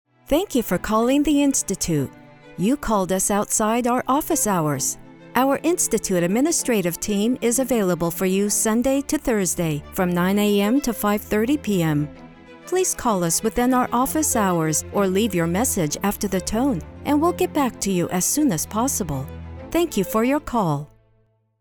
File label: IVR With Music; INSTITUTE